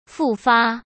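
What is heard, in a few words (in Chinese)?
"复发", Mandarin Chinese; chinese flashcards; hsk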